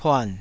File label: "puan3", Taiwanese